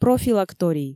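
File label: "профилакторий", Komi, female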